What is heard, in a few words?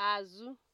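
"azu", Nigerian Pidgin, female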